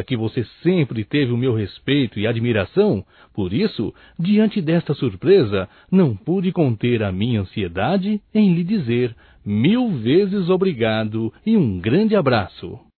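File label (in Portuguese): Agradecimento pelo presente male, Só Voz; Telemensagens; AGRADECIMENTOS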